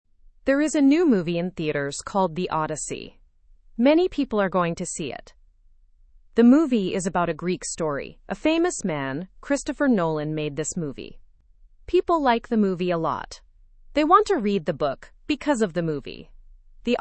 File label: english through news beginner fast; the odyssey movie inspires book fans